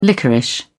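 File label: "liquorice", British English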